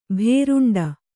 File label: "ಭೂರುಂಡಿ", Kannada